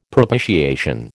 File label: "propitiation", American